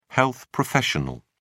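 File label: "health professional", British English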